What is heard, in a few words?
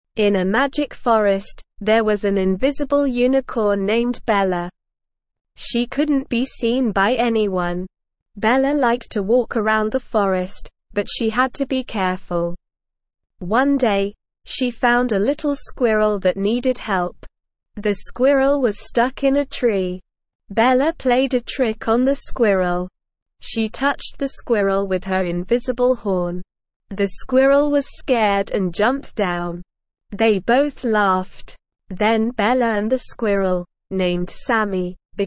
ESL Short Stories for Kids Beginner SLOW Reading; The Invisible Unicorn